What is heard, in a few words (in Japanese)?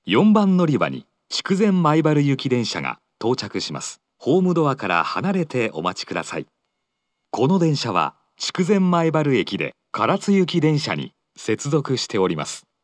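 接近-筑前前原行（筑前前原駅で唐津行き接続）, 4番のりば放送; 福岡市地下鉄 空港線・箱崎線 中洲川端駅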